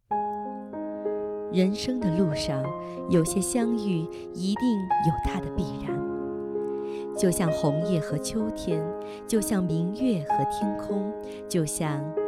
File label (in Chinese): 念白; 相遇